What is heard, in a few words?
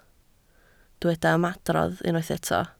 "ymadrodd", Welsh